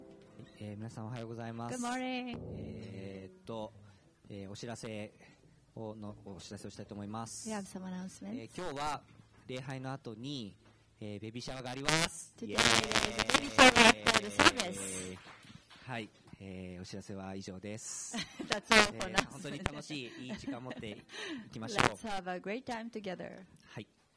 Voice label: announcement; sunday message 2020